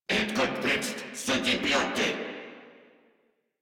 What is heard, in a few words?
Reload Crewmen, en